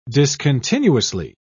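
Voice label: "discontinuously", English, British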